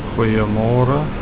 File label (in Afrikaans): "Goeiemôre", Afrikaans; goodmorning